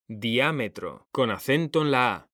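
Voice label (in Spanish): "diámetro", Spanish; mekanta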